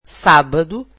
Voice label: "Saturday", Portuguese